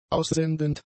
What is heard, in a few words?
"aussendend", German; adjectives